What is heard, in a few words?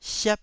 "siap1", taiwanese Syllables